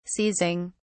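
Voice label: "seizing", British English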